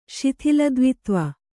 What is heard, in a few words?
"ಶಿಥಿಲದ್ವಿತ್ವ", kannada